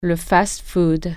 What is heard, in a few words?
"fast food restaurant", French